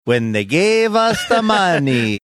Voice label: funny